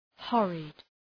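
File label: "horrid", English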